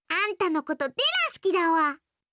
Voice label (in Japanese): 好きです 愛知; 亜美